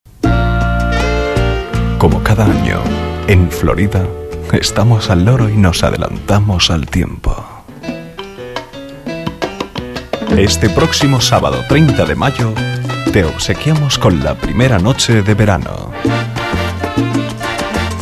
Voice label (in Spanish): Publicidad Radio voces comerciales musica; Florida Tropical